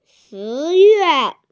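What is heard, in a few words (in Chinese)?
"shi2yue4", Mandarin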